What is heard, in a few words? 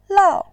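"lao", Mandarin Chinese, fourth tone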